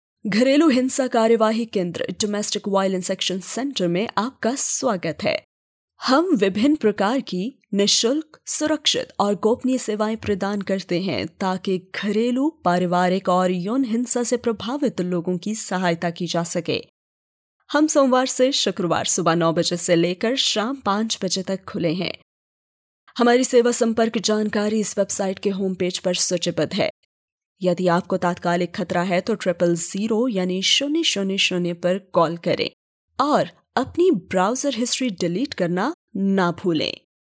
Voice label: Hindi Recording